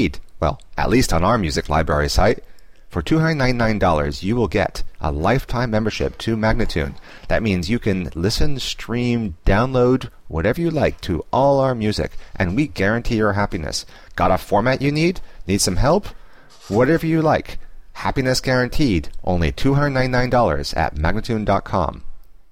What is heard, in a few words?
D spoken, World Loops; World Loops 2; MagnaLoops